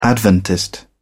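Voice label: "adventist", British English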